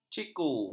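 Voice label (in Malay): "ciku", Malay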